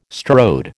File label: "strode", American